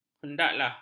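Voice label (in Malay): "hendaklah", Malay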